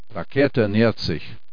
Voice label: MISSILE, german